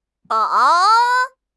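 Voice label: "bang3", Mandarin Chinese; 汉语口语新时代-初级下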